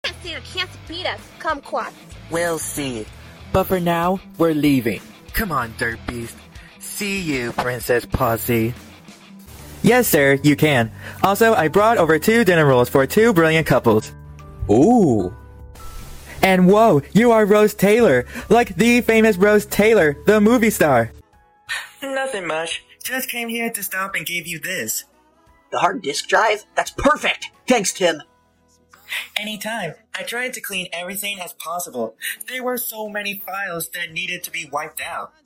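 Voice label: Animation Demo, Male Autistic Voice Actor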